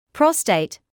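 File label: "prostate", British English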